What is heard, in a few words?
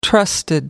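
"trusted", British English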